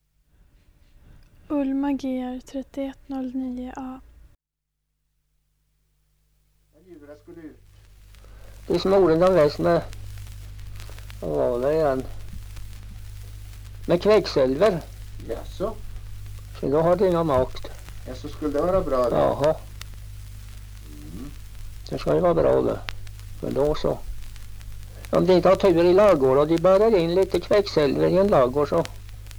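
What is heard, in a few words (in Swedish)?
Malexander Östergötland, dialekter; Folkminnen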